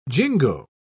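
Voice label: "jingo", British English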